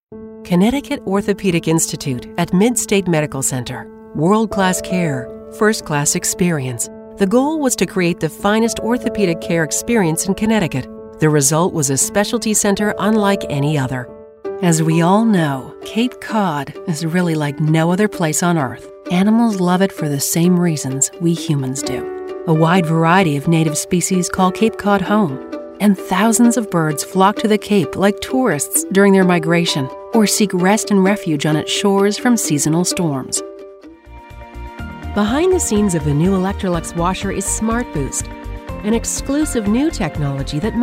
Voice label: Corporate Narration